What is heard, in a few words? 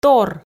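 "тор", Komi, female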